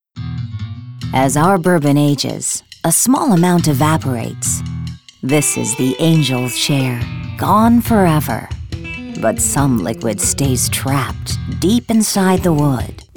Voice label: Women Commercial